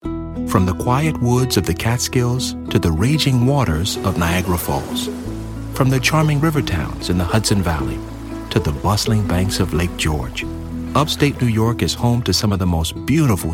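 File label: US English narrative; GoLocalise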